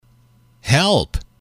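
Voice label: "help", English, male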